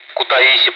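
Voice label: Speech, Russian, air traffic control; Callsign; Kutaisi; DCS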